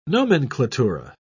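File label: "nomenklatura", British English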